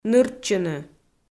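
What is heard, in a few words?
"ныртчыны", Komi, female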